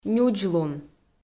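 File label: "нюдзлун", Komi, female; kpv audio dict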